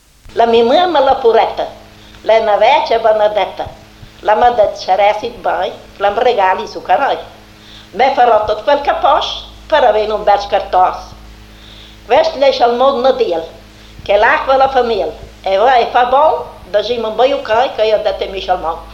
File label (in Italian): sermone di natale